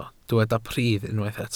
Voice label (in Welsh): "pridd" Welsh